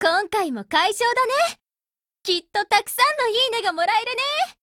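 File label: win, Japanese; 人物：少女前线:APC9K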